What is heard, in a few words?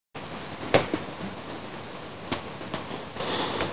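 "gao1", Mandarin Chinese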